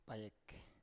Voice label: "payek", Biak